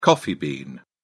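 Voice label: "coffee bean", English, British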